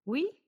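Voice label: "oui", French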